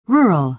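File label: "rural", American English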